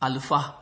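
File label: "alufa", Nigerian Pidgin, male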